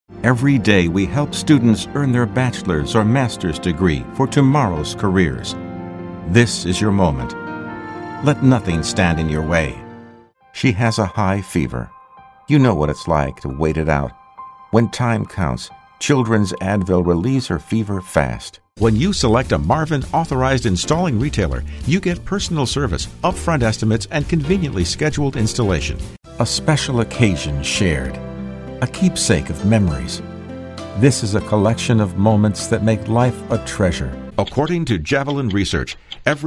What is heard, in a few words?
Commercial